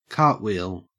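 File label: "cartwheel", Australian English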